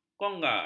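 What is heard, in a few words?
"konga", Malay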